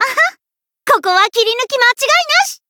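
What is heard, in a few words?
Voice zodiac burst, Japanese; ciel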